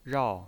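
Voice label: "rao", Mandarin Chinese pinyin voice, fourth tone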